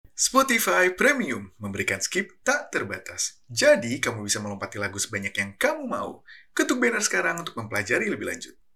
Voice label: casual, Indonesia, friendly voice, medium-light tone